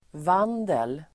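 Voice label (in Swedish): "vandel", Swedish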